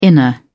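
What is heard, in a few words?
"inner", British English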